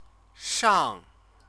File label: "shang", Chinese